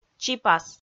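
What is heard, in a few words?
"чипас", Komi, female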